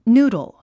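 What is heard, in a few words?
"noodle", English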